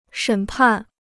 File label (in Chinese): "审判", Chinese